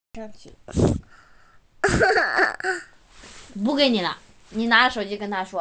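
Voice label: "ti1", Mandarin Chinese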